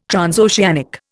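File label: "transoceanic", Indian English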